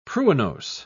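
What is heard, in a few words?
"pruinose", British English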